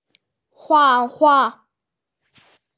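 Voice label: "hua4hua4", Mandarin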